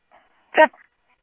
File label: Police, scanner audio trunk; H-Scottsdale